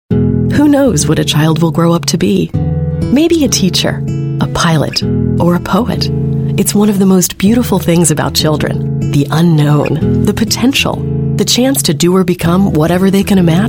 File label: US English Narration